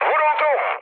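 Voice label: aircraft damaged, mood low, Turkish; War Thunder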